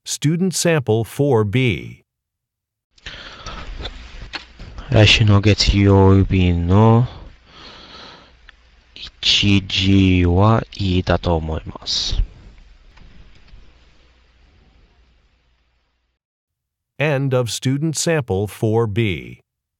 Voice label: japanese conversation

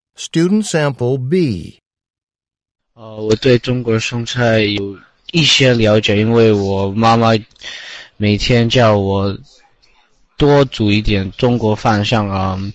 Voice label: chinese conversation

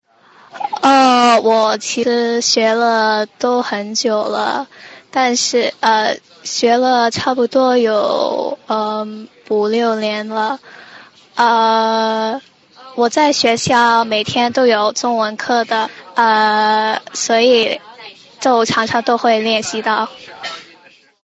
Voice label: Chinese Conversation

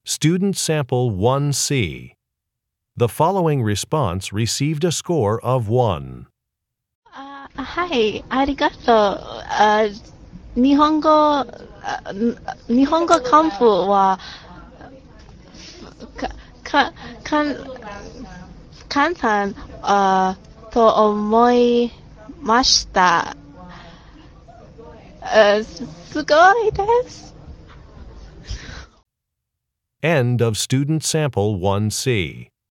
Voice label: japanese conversation

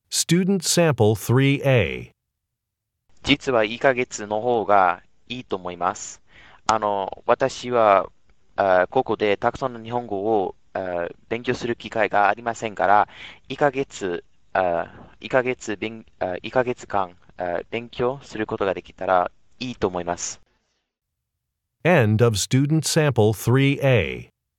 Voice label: japanese conversation

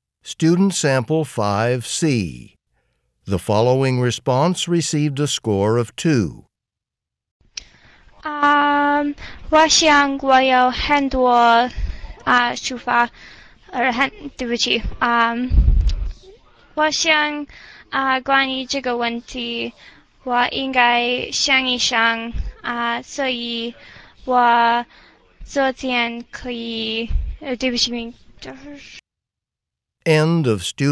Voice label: chinese conversation

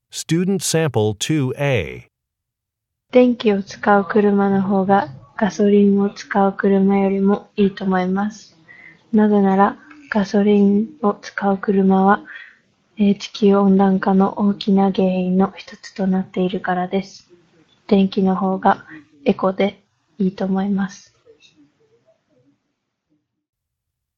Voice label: japanese conversation

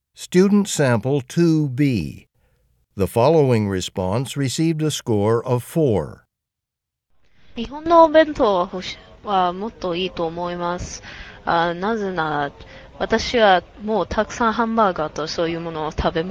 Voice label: japanese conversation

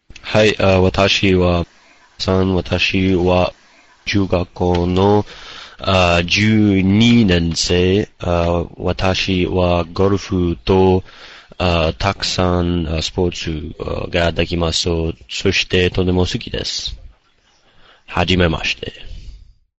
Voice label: Japanese Conversation

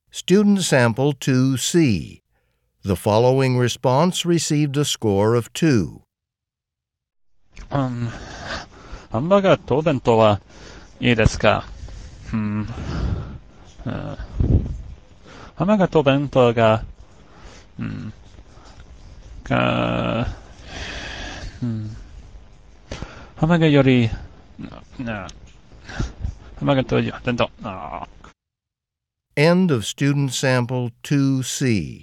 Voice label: japanese conversation

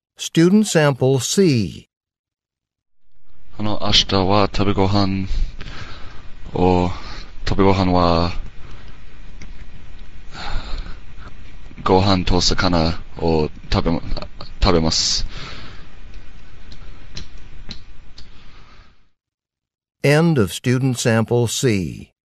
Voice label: japanese conversation

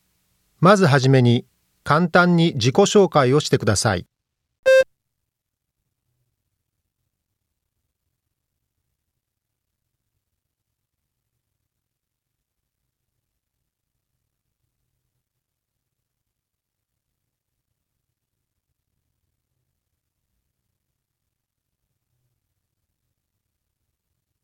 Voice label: japanese conversation

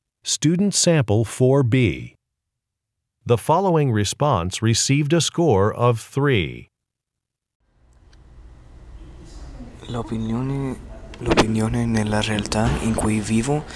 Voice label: italian

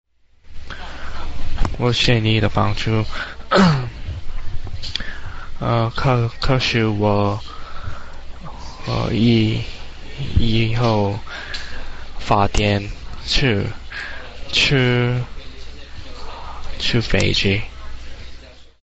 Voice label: Chinese Conversation

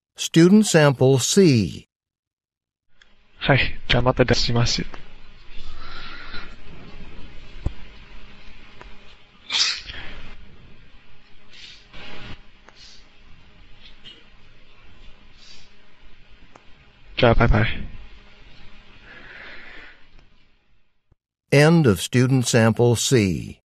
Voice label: japanese return telephone call

